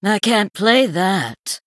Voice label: Female Emote ERROR PLAY; Sanlayn